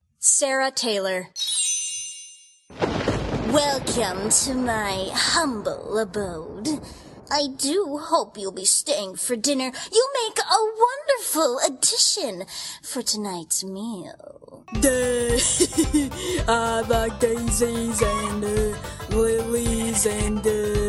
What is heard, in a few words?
USA